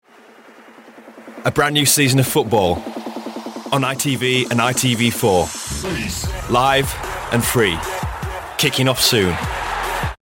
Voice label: promo; itv